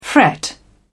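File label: "fret", British English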